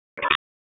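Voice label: Police District Tactical, p25; Raleigh; Northeast; wake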